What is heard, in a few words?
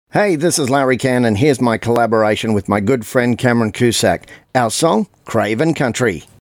radio intro; Cravin Country